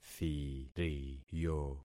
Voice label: "thiriyo", concat syllables; Affixing experiment